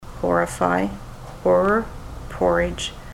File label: horrify horror